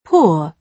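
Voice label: "poor", British English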